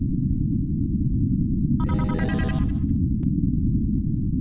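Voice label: computer talk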